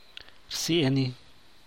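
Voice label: "about", US English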